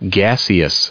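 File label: "gaseous", English, us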